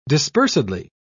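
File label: "dispersedly", English, British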